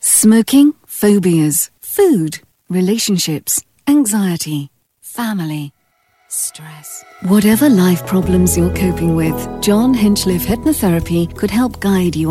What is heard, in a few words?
Radio Advert